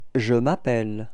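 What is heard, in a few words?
"appeller je", French; frans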